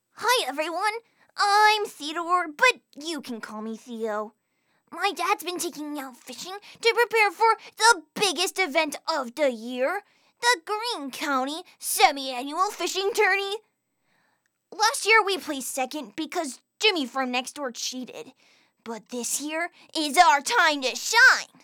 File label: Animation Reel, Voiceover